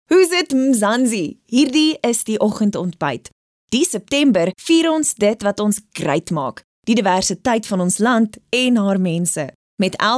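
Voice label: Afrikaans, female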